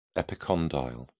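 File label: "epicondyle", British English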